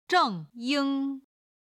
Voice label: "zheng ying" Chinese